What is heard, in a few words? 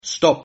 stop, Sylt Frisian